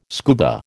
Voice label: "scuba", American